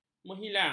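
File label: "menghilang", Malay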